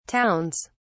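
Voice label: "towns", British English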